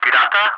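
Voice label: "Gudauta", English, ATC callsign; DCS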